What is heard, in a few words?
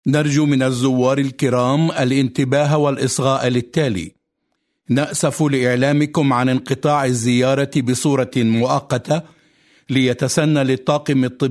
Arabic; MAIMONIDES HOSPITAL; language services